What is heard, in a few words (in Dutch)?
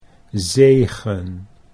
"zegen", Dutch